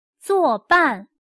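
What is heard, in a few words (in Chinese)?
"做伴" Mandarin Chinese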